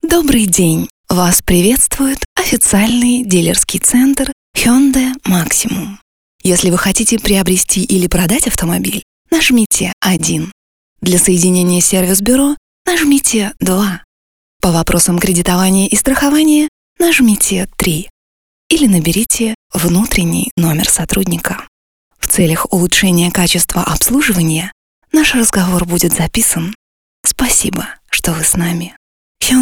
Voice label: IVR